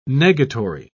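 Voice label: "negatory", British English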